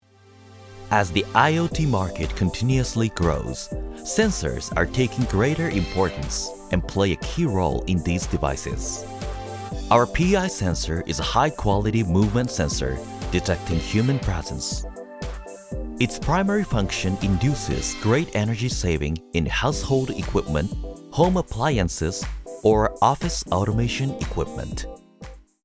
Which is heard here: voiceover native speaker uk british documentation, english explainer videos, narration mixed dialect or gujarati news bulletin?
english explainer videos